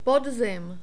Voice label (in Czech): "podzim", Czech